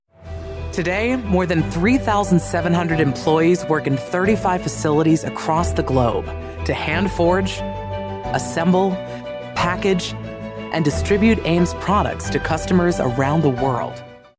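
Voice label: Explainer confident proud bold inspiring energetic friendly connected, Female Voice Over; engaging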